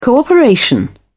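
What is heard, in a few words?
"cooperation", British English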